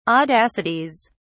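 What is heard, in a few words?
"audacities", British English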